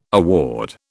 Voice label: "award", British